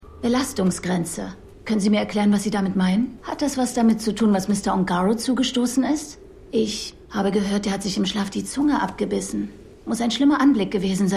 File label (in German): PsychologischeBeraterin; Falling Water